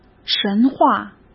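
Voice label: "神话", Mandarin Chinese; chinese flashcards; hsk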